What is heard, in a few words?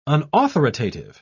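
"unauthoritative", British English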